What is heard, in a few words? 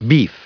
"beef", en-us